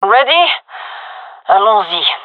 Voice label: round start, gendarmerie fem; vo